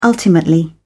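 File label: "ultimately", British English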